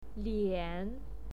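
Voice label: "lian", pinyin, third tone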